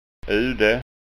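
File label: "ude", Scanian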